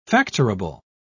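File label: "factorable", British English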